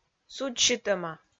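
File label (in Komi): "судзсьытӧма", Komi, female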